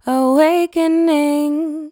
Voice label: "Awakening", Vocals, One-Words; GhostHack

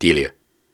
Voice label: Viking Vocal Syllables and Imaginary Words, Hard

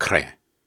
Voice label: Syllables and Imaginary Words, Hard, Viking Vocal

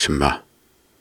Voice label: Soft Syllables and Imaginary Words, Viking Vocal

